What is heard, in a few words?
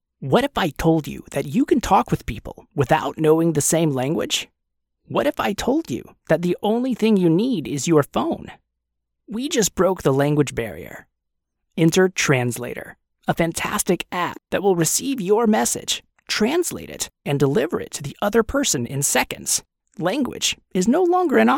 product video